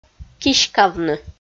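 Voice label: "киськавны", Komi, female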